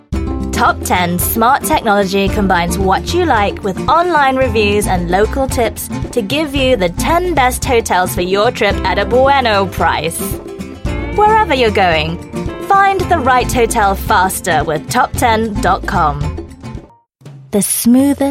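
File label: Malay English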